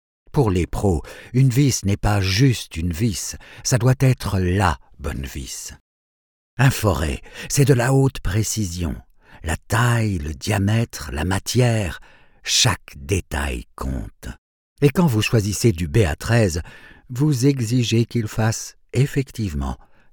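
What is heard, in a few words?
french corporate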